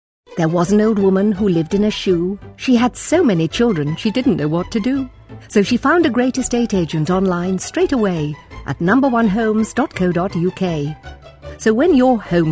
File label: poem, readings